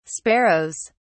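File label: "sparrows", British English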